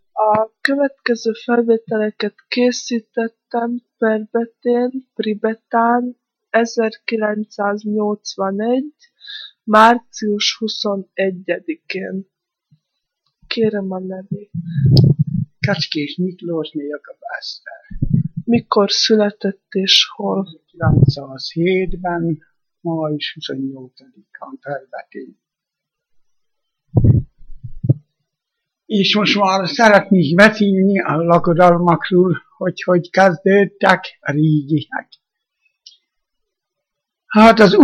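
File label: dialect collection; nyelvjaras gyujtesek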